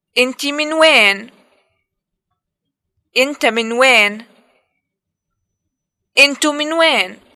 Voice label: introductions where are you from, arabic levantine